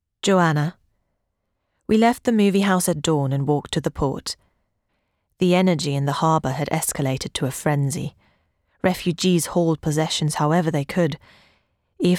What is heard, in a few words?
female English Canadian and German multiple accents; salt by the sea by ruta sep